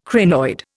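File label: "crinoid", Indian English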